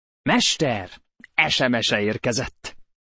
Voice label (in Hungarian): Mester SMS-e érkezett (férfi), sms csengőhang